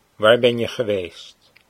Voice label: waar ben je geweest, Dutch

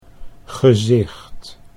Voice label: "gezicht", Dutch

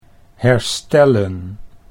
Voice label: "herstellen", Dutch

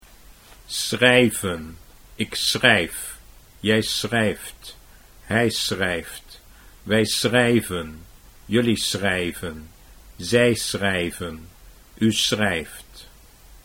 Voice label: schrijven ik schrijf, Dutch

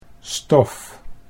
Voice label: "stof", Dutch

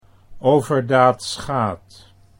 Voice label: "overdaad schaadt", Dutch

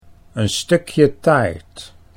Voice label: stukje taart, Dutch

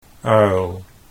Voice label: "uil", Dutch

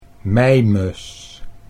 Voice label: "meimus", Dutch

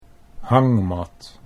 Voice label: "hangmat", Dutch

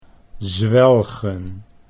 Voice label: "zwelgen", Dutch

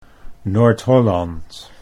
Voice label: Noord Holland, Dutch

